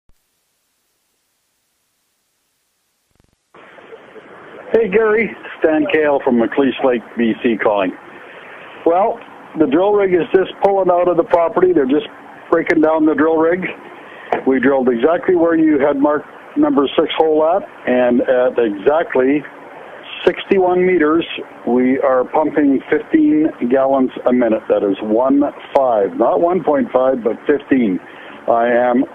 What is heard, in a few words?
Voicemail